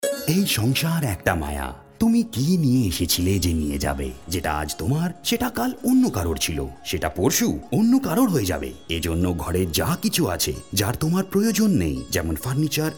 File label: Bengali; OLX